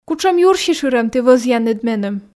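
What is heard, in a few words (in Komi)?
кутшӧм юрсишырӧм ті вӧзъянныд меным, Komi, female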